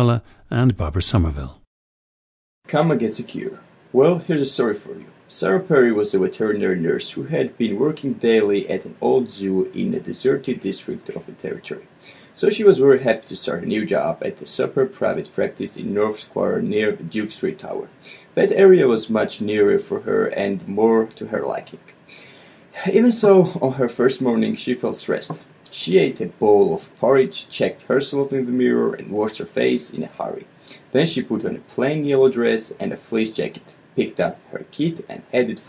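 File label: georgia, International Dialects of English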